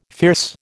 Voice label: "fierce", American